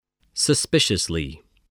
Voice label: "suspiciously", English

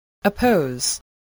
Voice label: "oppose", voice, spelling

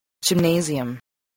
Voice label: "gymnasium", spelling

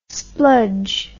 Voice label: "spludge", english

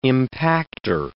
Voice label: "impacter", English

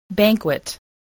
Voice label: "banquet", English

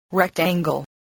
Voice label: "rectangle", spelling voice

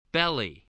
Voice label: "belly", English

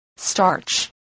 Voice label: "starch", voice

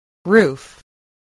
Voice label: "roof", English spelling

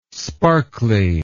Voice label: "sparkly", English

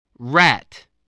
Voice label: "rat", english

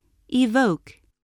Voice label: "evoke", voice, english

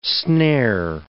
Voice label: "snare", English

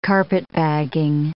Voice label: "carpetbagging", English

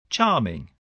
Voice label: "charming", English, voice

